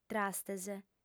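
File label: "trastes", female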